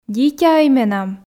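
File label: "дитяӧй менам", Komi, female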